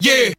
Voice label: "yeah", group of men